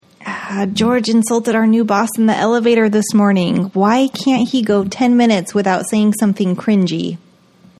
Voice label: cringy